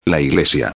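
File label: "la iglesia", Spanish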